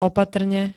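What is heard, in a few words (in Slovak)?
"opatrne", Slovak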